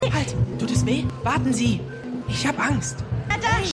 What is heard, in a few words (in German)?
Jugendlicher; New Amsterdam